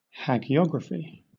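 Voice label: "hagiography" British English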